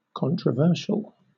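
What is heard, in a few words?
"controversial", British English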